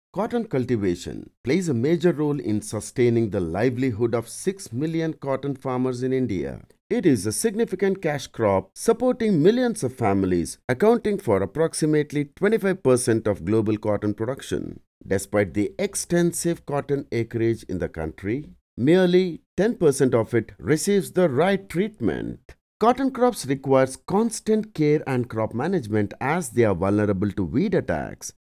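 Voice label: Kannada, male, English; indian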